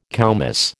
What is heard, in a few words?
"koumiss", American